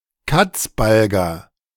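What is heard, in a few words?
"Katzbalger", German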